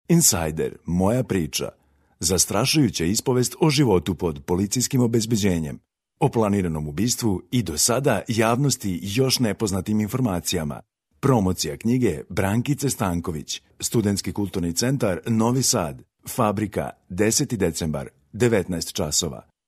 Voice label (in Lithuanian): VYR Serbu balsas, vyras